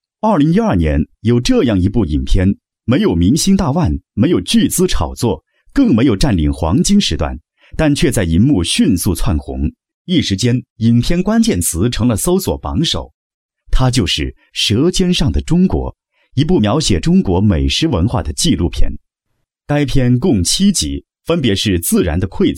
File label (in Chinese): 男; 舌尖上的秘密